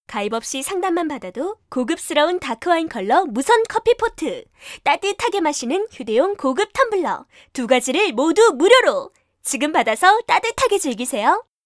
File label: Korean female, voice over; Foreign language voice over